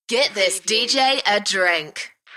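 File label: British female Get this dj a drink; beanstalk audio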